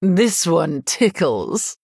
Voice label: Female Human TRIGGER Combustion